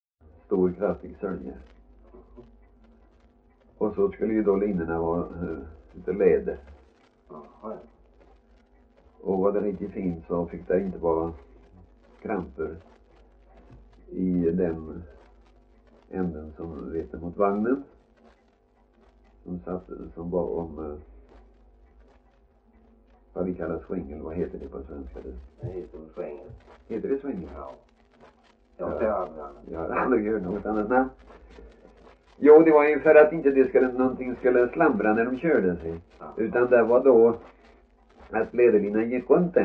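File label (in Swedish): Värö Halland, dialekter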